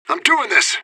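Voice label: radio bot response attacking; fbihrt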